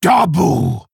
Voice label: Male Orc Attack